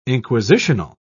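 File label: "inquisitional", British English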